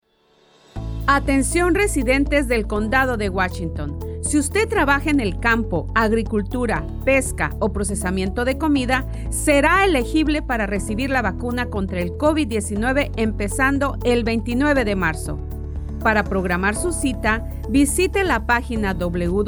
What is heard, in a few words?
Esp; Washington; Vaccine Radio Spots; covid19